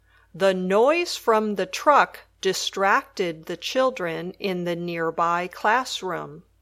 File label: noise sentence